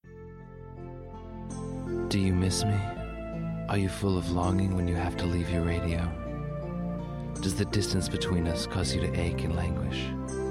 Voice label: Station Imaging; WPTS-FM Imaging; University of Pittsburgh